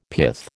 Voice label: "pith", American